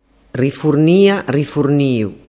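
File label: "rifurnianiu", Sicilian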